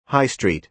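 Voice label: "high street", American English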